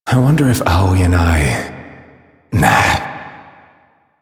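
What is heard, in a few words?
Citizen Male, English; Warframe; Mall; Citizens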